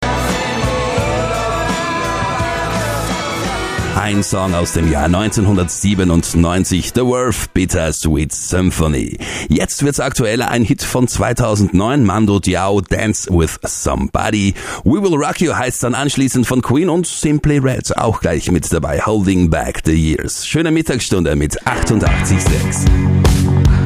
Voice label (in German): Musicsell Aircheck, Moderator im Rundfunk